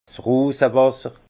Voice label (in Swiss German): "rosewasser", Alsace; vin; eau de rose